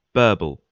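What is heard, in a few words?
"burble", British English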